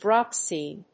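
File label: "drop scene", English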